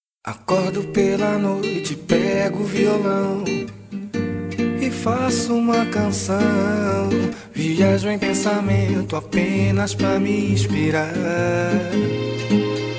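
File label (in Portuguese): SAUDADES ROMANTICAS male, telemensagem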